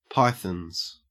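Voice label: "pythons", Australian English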